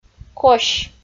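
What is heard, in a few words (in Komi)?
"кось", Komi-Zyrian, female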